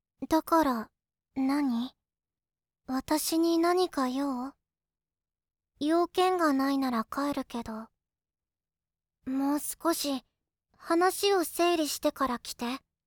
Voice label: 高校生 ぼんやりマイペース 物静か 15歳; jtb-ent-voice

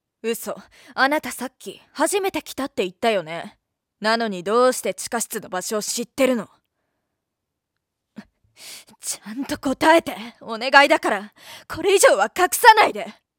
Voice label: ハキハキしたリーダー格の大学生; jtb-ent-voice

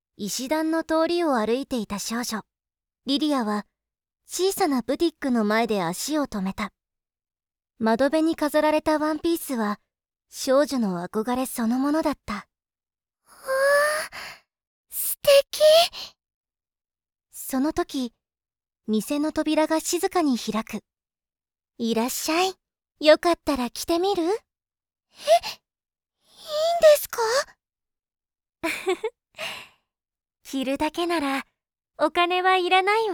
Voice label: 朗読 洋服

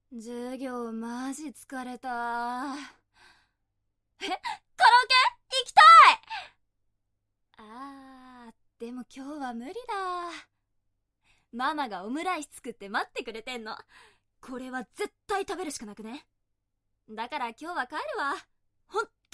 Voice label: ギャル; jtb-ent-voice

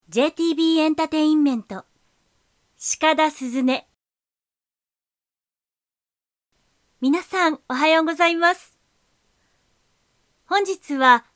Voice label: イベントMCボイスサンプル; jtb-ent-voice

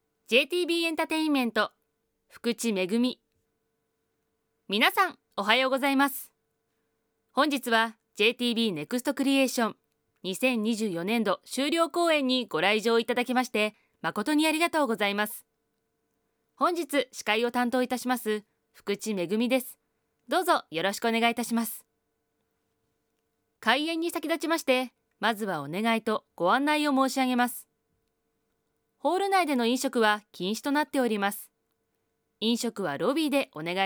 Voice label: イベントMCボイスサンプル; jtb-ent-voice

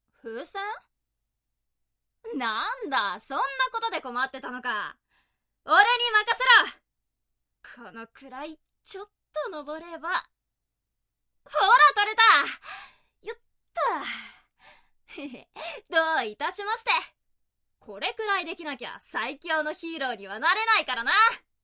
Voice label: 男の子; jtb-ent-voice

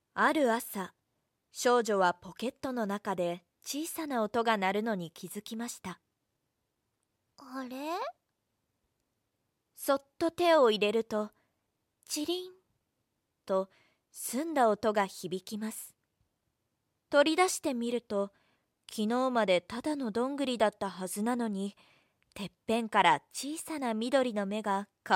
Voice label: 朗読

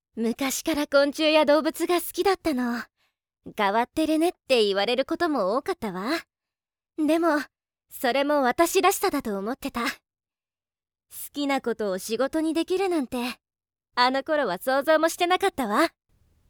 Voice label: 外画 穏やかな 26歳; jtb-ent-voice

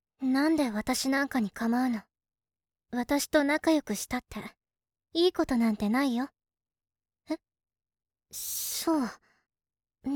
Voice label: 高校生 マイナス思考 暗い 17歳; jtb-ent-voice

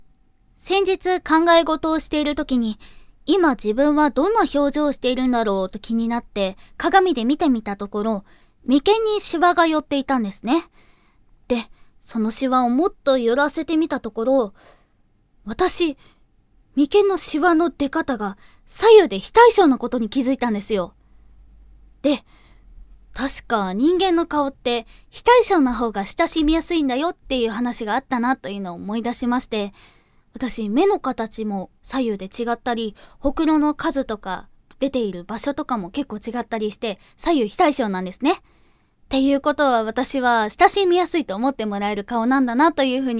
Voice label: フリートーク「親しみやすい顔」

